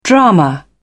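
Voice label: "drama", British English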